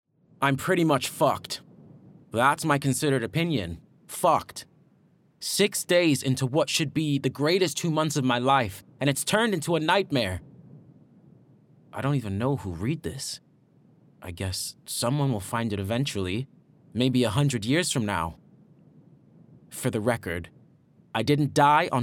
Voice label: Audio Book, American, Expressive, Smooth, Versatile, Character